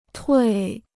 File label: "退", Chinese